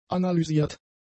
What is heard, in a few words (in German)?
"analysiert", German; adjectives